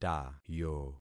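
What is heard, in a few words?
"tayo", concatenated syllables; Affixing experiment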